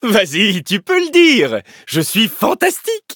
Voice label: levelup, fr; cookie run